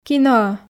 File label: "киноӧ", Komi